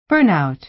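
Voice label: "burnout", American English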